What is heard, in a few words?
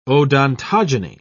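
"odontogeny", English, British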